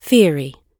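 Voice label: "theory", British English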